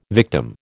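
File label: "victim", American English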